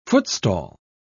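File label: "footstall", en, uk British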